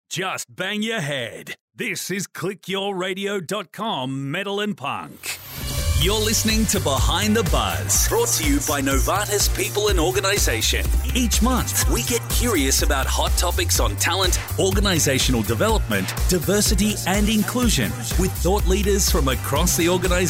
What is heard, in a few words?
Radio Imaging